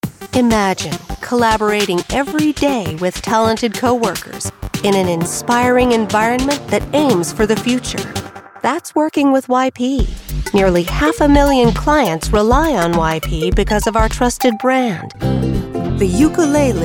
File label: english american narration